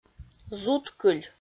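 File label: "зуткыль", Komi, female; kpv; audio dict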